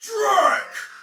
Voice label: Attack Normal, Soldier Male; Dialog; Barks; Battle; Sinecure